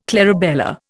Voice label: "clarabella", Indian